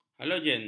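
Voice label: "halogen", Malay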